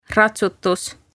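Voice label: "ratsutus", Estonian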